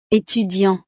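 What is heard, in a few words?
"etudiant", French; profesiones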